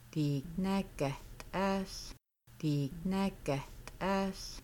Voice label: They are frying chickens, Kaska; Making Bannock